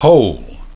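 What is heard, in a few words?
"hole", English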